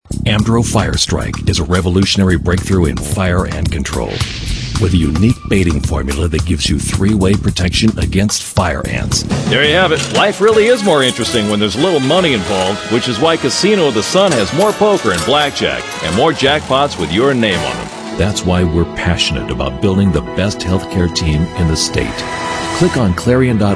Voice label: male mid30s mid40s cool